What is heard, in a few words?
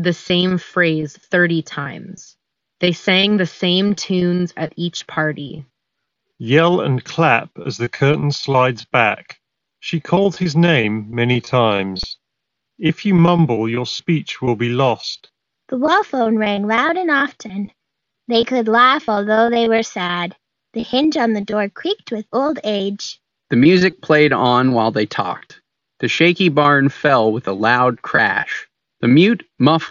Ideal microphone sample; Skullcandy Dime 2